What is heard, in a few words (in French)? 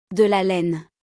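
"De la laine", French; vocabulaire; le lexique de l'habillement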